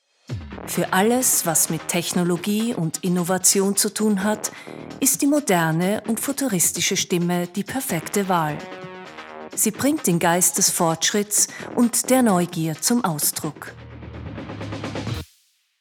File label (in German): Sachlich